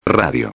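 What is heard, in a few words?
"radio", Spanish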